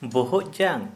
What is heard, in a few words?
"Skull", Santali